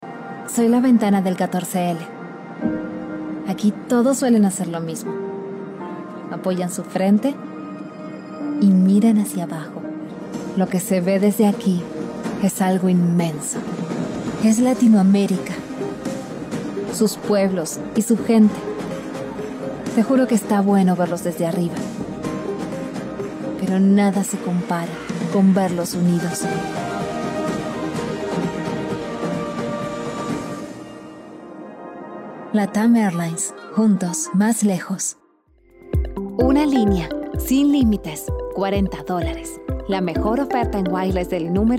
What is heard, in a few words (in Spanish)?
Locu NEUTRO